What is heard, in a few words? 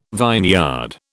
"vineyard", British